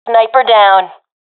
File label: sees sniper killed, professional fem; vo; agents; Source Model Packs; Counter Strike Model Pack; CS2